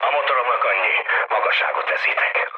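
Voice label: Hungarian damaged engine mood low, speech; War Thunder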